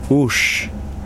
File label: banana, Kosraean